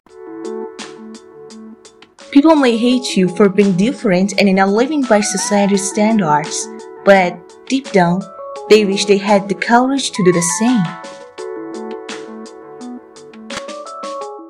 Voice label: narrator